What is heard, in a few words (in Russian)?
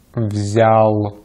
"взял", Russian; Vocales tónicas en ruso; easypronunciation